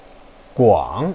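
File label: "guang3", Mandarin Chinese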